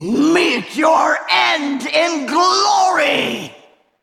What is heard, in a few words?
Female; Val'kyr; DEVASTATE